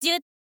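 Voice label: "zyu", Japanese, girl; voice; info girl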